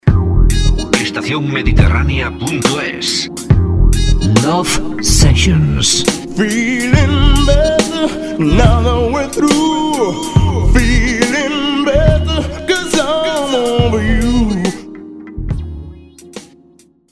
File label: jingle love sessions